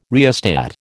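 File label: "rheostat", American English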